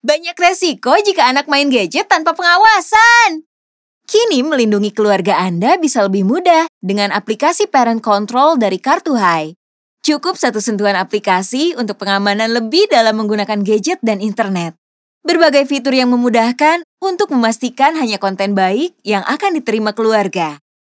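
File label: casual Indonesian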